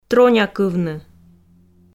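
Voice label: "тронякывны", Komi, female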